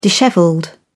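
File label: "dishevelled", British English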